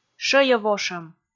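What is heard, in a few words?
"шӧйӧвошӧм", Komi, female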